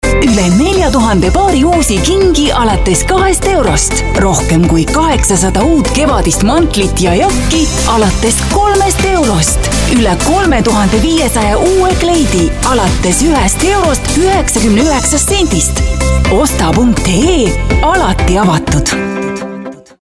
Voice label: Estonian female